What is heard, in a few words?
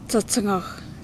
"insect", Yapese